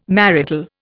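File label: "marital", Indian English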